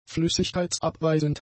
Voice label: "flüssigkeitsabweisend", German; adjectives